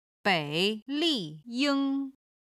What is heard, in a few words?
"bei3 li4 ying1", Chinese